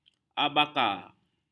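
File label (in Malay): "abaka", Malay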